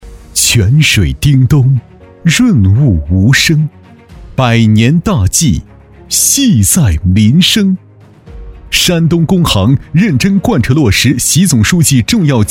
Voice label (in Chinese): 男 党政汇报 浑厚大气; 山东工行